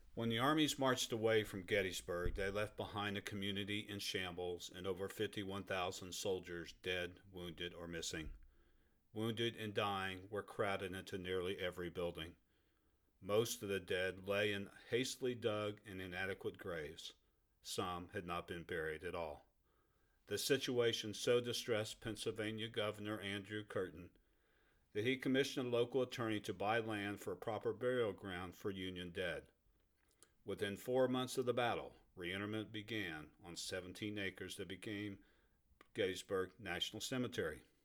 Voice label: Narratives

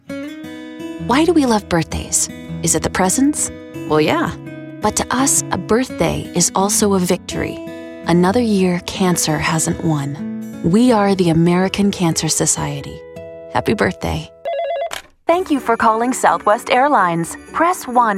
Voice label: COMMERCIAL

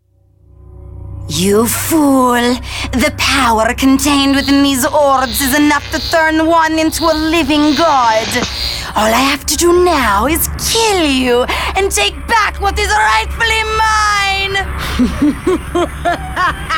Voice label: Animation

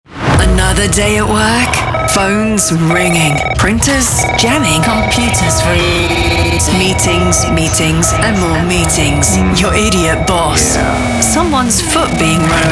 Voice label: Imaging

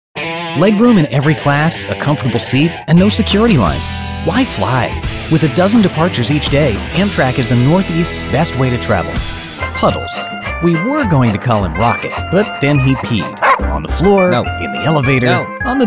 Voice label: American English Commercial

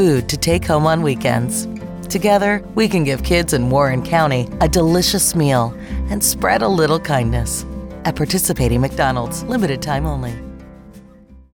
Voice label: backpack PSA; Bowling Green

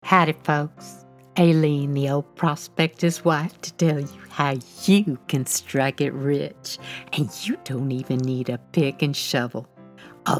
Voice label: CHARACTER VO home studio produced

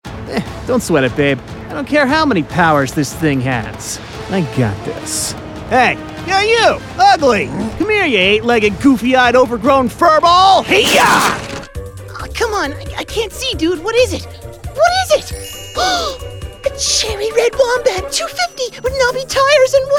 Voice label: Character

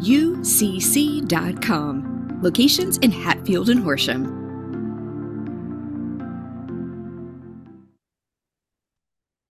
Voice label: urgent care TV

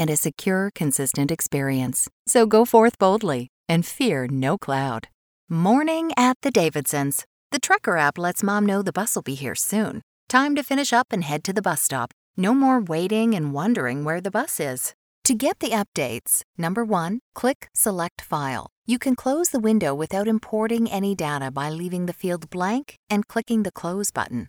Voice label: business corporate industrial

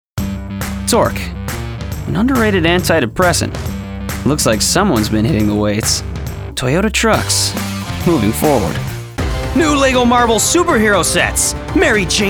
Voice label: Commercial

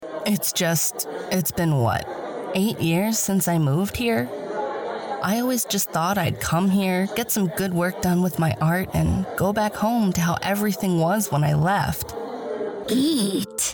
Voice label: Character

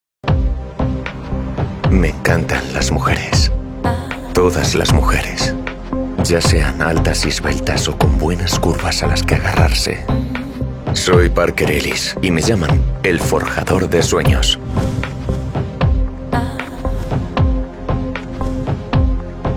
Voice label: EU Male Spanish Voice booktrailer; Ediciones Planeta; Audrey Carlan; Todo es posible

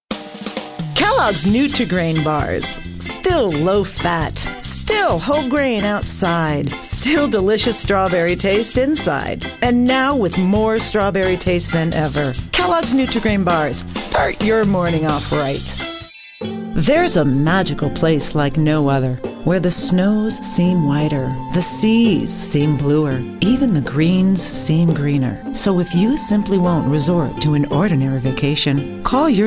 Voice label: Commercial Demo

